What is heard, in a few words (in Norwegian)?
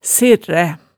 "serræ", hemsedalsmaalet